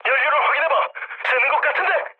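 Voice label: Korean tank damaged mood med, speech; War Thunder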